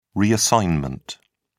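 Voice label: "reassignment", British English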